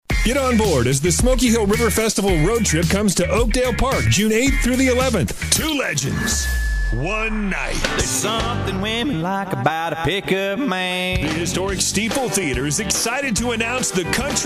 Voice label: USA